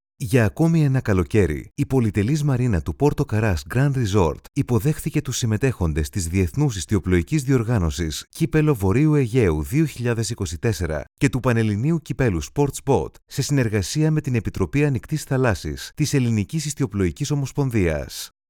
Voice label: Greek, male, dry